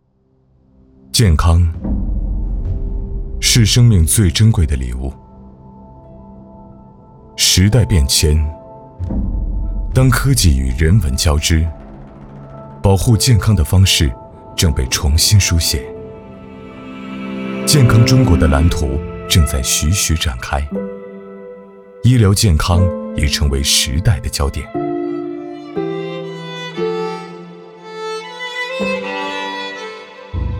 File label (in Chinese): 男 旁白; 与创新者共创健康未来